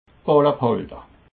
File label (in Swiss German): "ballebhalde", alsace